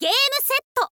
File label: "gameset", girl; voice